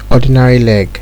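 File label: "ordinary leg", Nigerian Pidgin, male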